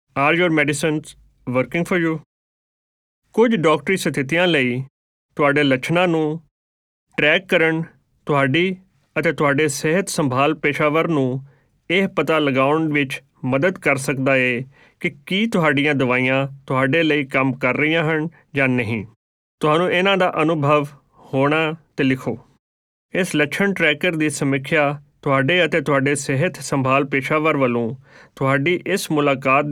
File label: Symptom tracker Punjabi Gurmukhi